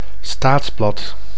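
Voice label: "staatsblad", Dutch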